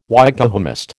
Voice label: "Wykehamist", American